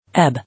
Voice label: "Ebb", English